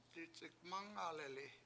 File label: "dic7ikmAngaleli7" I bump, Washo